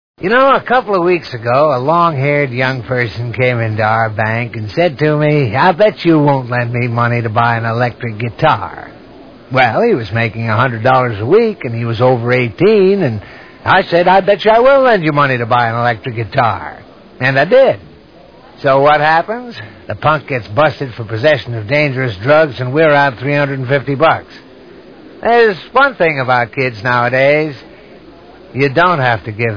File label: Commercials; Freekin Weird Audio